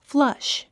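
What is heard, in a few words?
"flush", English word; 発音 flʌ́ʃ フラァッシュ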